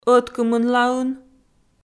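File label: "ӧткымынлаын", Komi, female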